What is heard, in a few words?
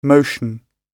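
"motion", British English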